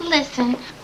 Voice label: listen female; Voice; introductions

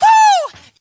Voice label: "hoo"

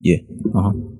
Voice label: "yeah uh huh", voice

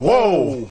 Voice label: "woah", Voice; ooh ahh

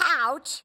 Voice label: ouch kid; ooh ahh; ow ouch

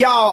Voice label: "yo", voice

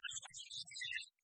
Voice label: over here british bloke

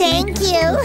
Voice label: "thank you" cartoon; Voice; expletives

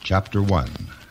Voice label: chapter one male; numbers and letters

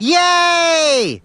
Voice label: "yay", child; ooh ahh

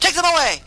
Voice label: take it away male; Voice; introductions

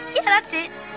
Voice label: yeah that's it, girl

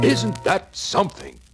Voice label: "isn't that something", male

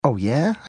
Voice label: "oh yeah", Voice; drew carey

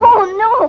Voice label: "oh no", boy

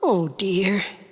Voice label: "oh dear", expletives, g-rated swears; piglet

